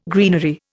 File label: "greenery", Indian